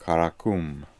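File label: "Karakoom", pronunciations; Fourth Way; Beelzebub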